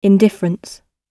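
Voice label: "indifference", British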